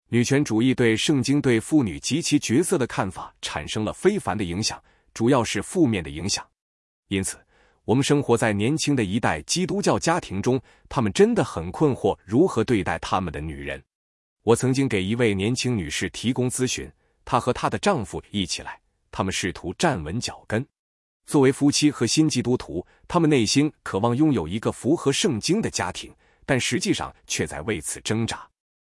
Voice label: chinese zh-CN; YunjianNeural